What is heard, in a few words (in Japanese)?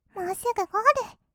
"もうすぐゴール", kawaii voice; ASMR; 色々なワンショット